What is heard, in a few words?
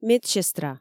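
"медсестра", Komi, female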